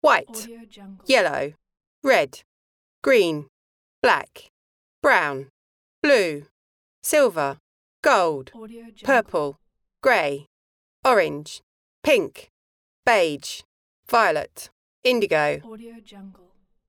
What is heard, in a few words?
female saying names of colours